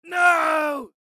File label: Adult man screams nooo